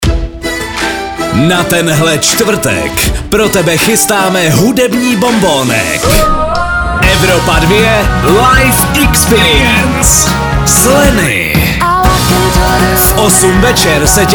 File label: promo; evropa2